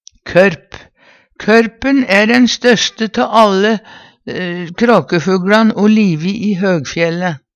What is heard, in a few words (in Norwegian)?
korp, Numedalsmål